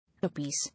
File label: "Appease", English